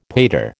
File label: "pater", American